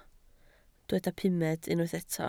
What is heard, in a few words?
"pumed", Welsh